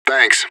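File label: radio bot response positive; fbihrt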